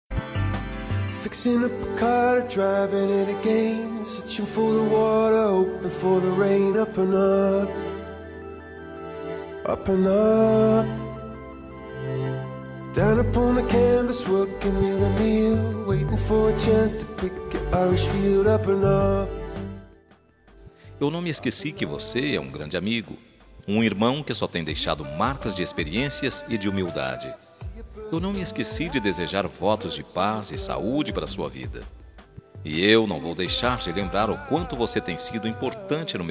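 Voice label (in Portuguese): ANIV IRMAO SING male, telemensagem; aniversario irmao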